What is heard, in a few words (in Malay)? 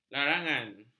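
"larangan", Malay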